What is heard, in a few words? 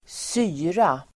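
"syra", Swedish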